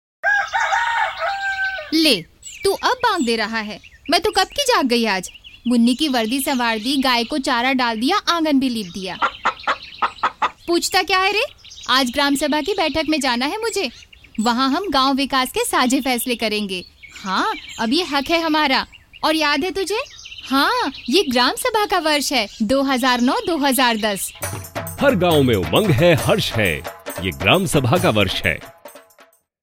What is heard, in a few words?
panchayatiraj hindi spot